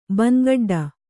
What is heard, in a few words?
"ಬಂಗಡ್ಡ", Kannada; bangaḍḍa; noun; a kind of grass.